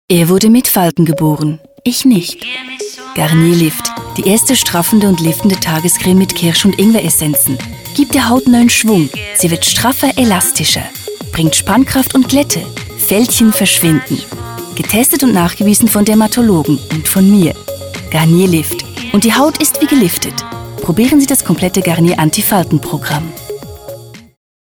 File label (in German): TV Spot Deutsch, sprecherin; Garnier Lift